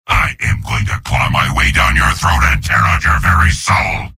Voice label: soldier taunts; tf2; garrysmod; mvm